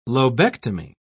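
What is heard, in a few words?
"lobectomy", English, British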